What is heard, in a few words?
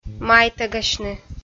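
"майтӧгасьны", Komi, female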